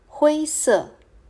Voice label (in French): "Gris" Mandarin